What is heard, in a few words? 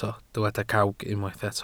"cawg", Welsh